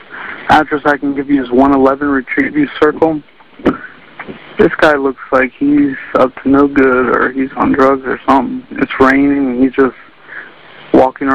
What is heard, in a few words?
911 call; George Zimmerman